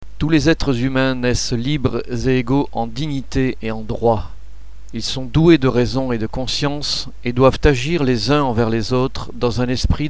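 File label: French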